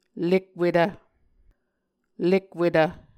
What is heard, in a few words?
"lekwetv", Muscogee